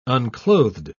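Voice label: "unclothed", British English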